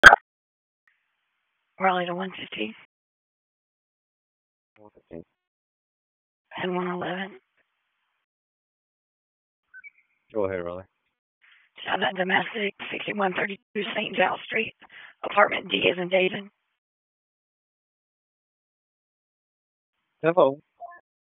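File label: Police Southwest District Dispatch, p25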